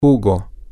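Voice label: juice, Spanish, male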